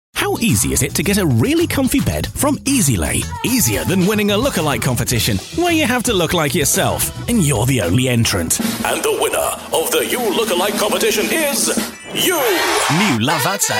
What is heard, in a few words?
commercial